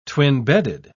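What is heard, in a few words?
"twin-bedded", English, British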